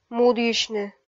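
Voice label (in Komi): "мудйысьны", Komi, female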